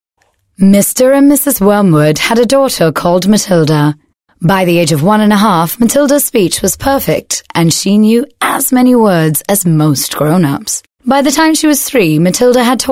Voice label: English elearning, female voice